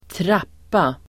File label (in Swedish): "trappa", Swedish